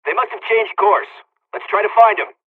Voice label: dialog, hungarian; us midway; War Thunder